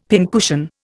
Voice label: "pincushion", Indian; Dictionary